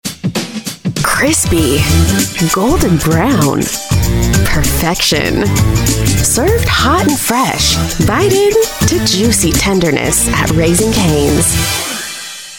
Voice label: US English; RaisingCanes